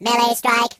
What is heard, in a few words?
Firepunch, Robot voices; hedgewars